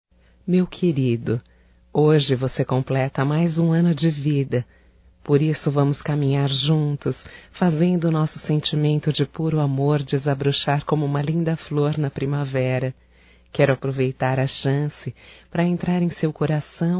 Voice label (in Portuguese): Aniv romântico, Telemensagens Aniversarios; Telemensagens 2017